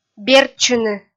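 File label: "бертчыны", Komi, female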